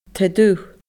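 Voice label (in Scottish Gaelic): "taigh dubh", Scottish Gaelic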